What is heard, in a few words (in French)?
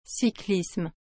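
"cyclisme", French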